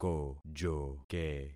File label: "kojoke", concat syllables; Affixing experiment